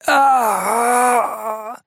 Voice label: pain low health warning; Trapper